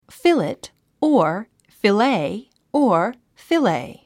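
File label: "fillet", English word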